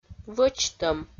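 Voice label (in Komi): "вӧчтӧм", Komi-Zyrian, female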